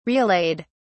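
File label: "relayed", British English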